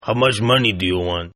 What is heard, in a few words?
Toughguy Money English, Tough Hispanic Male; Talking Sound Effects